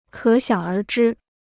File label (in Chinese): "可想而知", Chinese